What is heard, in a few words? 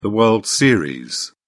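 "world series", British English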